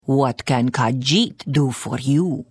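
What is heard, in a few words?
Hello, Khajiit female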